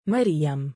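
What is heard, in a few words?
female name "maryam", Arabic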